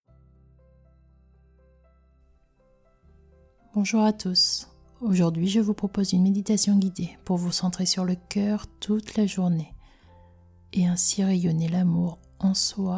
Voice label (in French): meditation du coeur